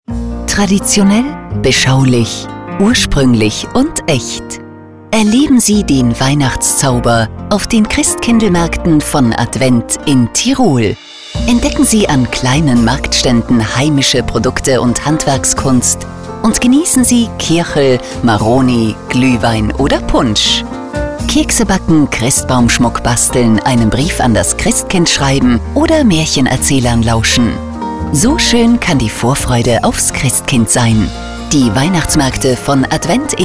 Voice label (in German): Spot; Advent in Tirol; Life Radio